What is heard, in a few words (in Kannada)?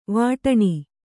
"ವಟಾಣಿ", kannada